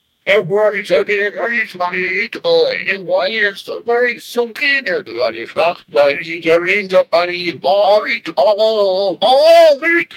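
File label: american southern texan male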